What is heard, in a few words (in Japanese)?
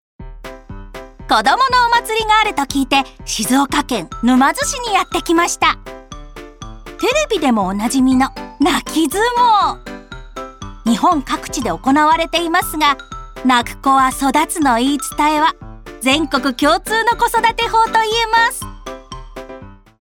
バラエティ ややキッズ 元気 「子供相撲」